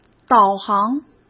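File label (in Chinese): "导航", Mandarin Chinese; hsk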